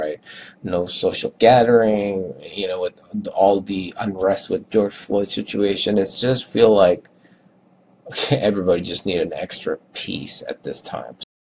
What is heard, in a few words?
Male Asian Pacific Islander